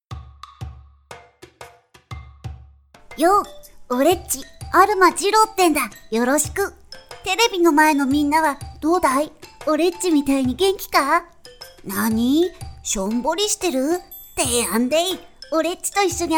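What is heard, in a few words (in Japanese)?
ボイスサンプル（男の子キャラクター）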